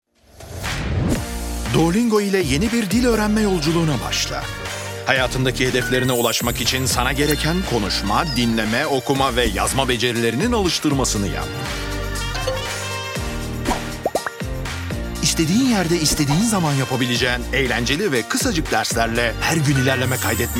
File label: tv ad; Duolingo